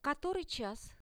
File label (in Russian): "kotoryi chas", Russian; Который час?; RUSSIAN VISUAL DICTIONARY; time